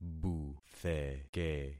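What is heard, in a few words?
"butheke", concatenated syllables; Affixing experiment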